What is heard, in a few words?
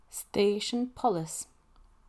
Police station, Gaelic